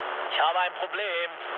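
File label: German aircraft damaged mood low, speech; War Thunder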